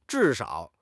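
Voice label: "zhi4 shao3", Mandarin Chinese; HSK4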